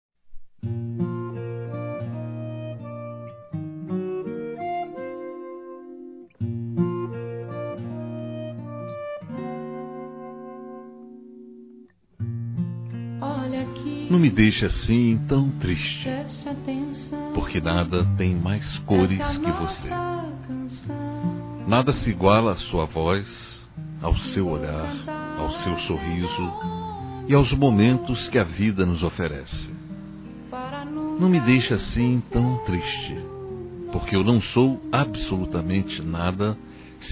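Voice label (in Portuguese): RECONCILIAÇÃO Masculino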